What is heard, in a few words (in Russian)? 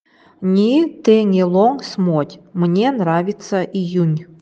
июнь, нивхский